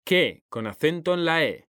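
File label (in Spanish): "QUÉ", Spanish; mekanta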